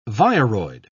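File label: "viroid", English, British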